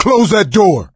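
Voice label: close the door, male; Left 4 Dead 2; coach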